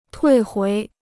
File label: "退回", Chinese